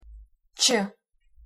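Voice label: "ч", Ukrainian pronunciation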